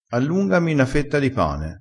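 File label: "allunga", Italian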